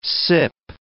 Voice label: "sip", words